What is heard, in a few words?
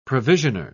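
"provisioner", British English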